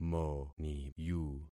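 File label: "moniyu", concatenated syllables; Affixing experiment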